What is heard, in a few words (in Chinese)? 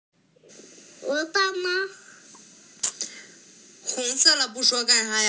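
"xu3yuan4", Mandarin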